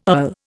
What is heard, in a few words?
"earl", Indian